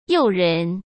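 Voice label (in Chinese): "诱人", Mandarin Chinese; hsk; chinese-flashcards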